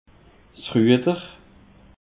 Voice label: "rueder", alsace; peche

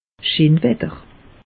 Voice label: "scheen wetter", alsace; meteo

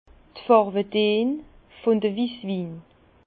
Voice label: "wisswin", Bas Rhin; vin; teintes des vins blancs

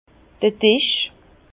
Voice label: "disch", Bas Rhin; vin

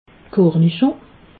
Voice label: "kornischon", alsace

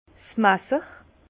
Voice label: "masser", Bas Rhin; vin; couteau

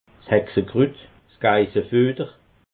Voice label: "hexekrut", alsace

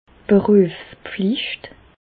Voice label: "beruefspflicht", Alsace, Bas Rhin; medecine; déontologie

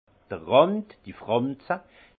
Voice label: "rand", alsace; le bord la frange; vin

